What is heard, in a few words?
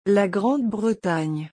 La Grande Bretagne pronunciation French; England in French